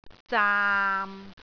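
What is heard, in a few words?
"zaam6", Cantonese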